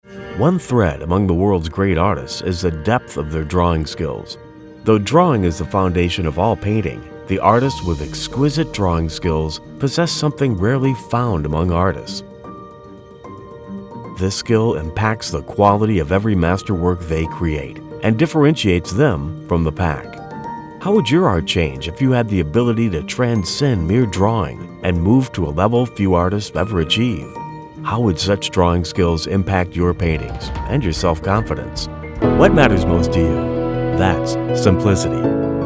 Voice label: Explainer Internet